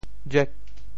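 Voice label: "juek8", Teochew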